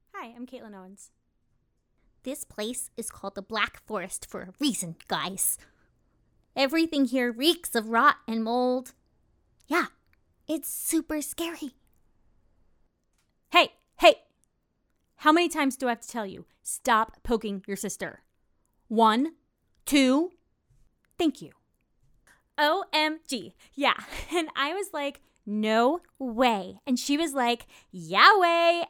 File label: Animation